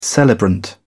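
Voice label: "celebrant", British English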